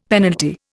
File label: "penalty", Indian; Dictionary